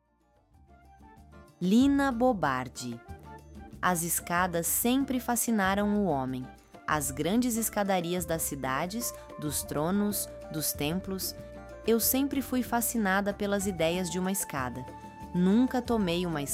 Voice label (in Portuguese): narracao; escadas de lina